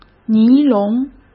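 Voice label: "尼龙", Mandarin Chinese; chinese-flashcards; hsk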